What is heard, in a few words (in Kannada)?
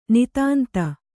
"ನಿತಾಂತ", Kannada